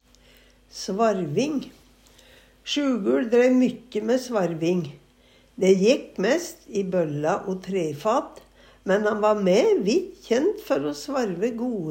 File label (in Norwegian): svarving, Numedalsmål